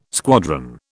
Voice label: "squadron", British